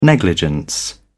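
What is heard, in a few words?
"negligence", British English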